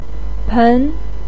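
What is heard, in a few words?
"pen2", Mandarin pinyin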